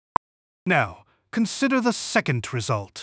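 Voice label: speaker male fast mid, second result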